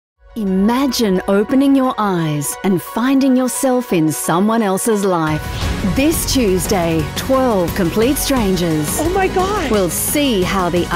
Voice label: promos